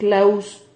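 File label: "claus", Spanish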